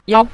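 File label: "yao", Mandarin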